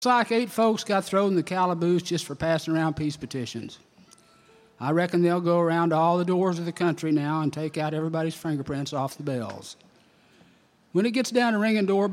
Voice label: Narration